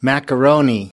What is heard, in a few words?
"macaroni", us